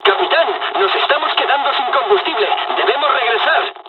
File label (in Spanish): dialog, dialogs spanish; us midway; War Thunder